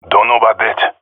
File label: disagree, jungle male epic; vo; agents; Source Model Packs; Counter Strike Model Pack; CS2